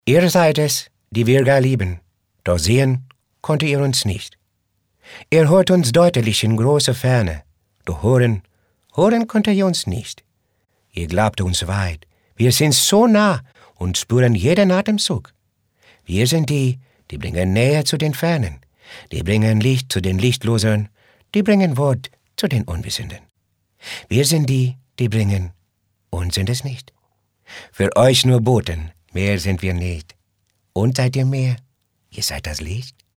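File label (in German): Indisch, Akzent, Sprecher, Männer; Dialekt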